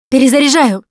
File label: Reloading Quiet, russian; Producer